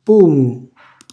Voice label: "pumu", Calabrese; cucina calabrese; Glossario

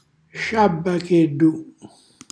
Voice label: "sciabbachellu", Calabrese; cucina calabrese

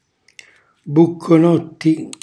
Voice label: "bucconotti", Calabrese; pasticcetti

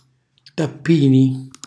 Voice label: "tappini", Calabrese; cucina calabrese

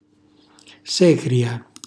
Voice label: "secria", Calabrese; cucinacalabrese; glossario

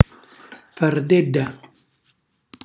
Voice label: "fardella", Calabrese; gonna

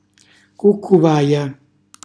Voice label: "cuccuvaja", Calabrese; civetta (dal greco)

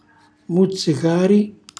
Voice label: "muzzicari", Calabrese; cucina calabrese; Glossario

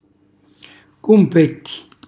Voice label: "cumpetti", Calabrese; confetti

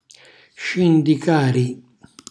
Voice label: "scindicari", Calabrese; cucina calabrese

